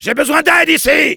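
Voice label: help me, French; Soldier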